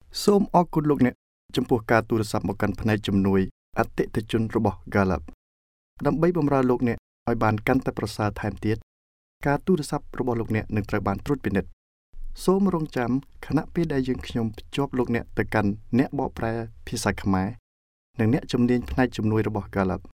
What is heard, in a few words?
Khmer